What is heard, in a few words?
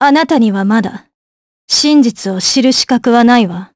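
Vox Victory, voices, Japanese; Isolet; kingsraid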